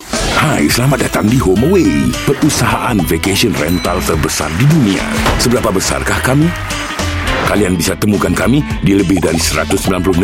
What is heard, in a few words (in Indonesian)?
Bahasa Indo TVC, Bahasa Indonesia Male; HomeAway